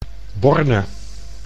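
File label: "Borne", Dutch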